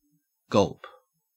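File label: "gulp" Australian English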